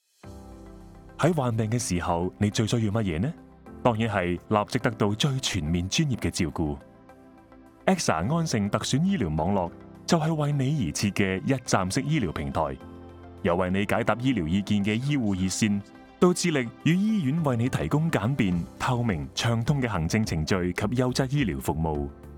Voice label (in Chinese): 粵語男聲, Insurance; AXA Insurance